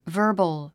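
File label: "verbal", English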